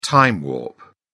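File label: "time warp", British English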